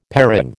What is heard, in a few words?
"parang", American